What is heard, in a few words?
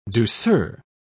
"douceur", pronounce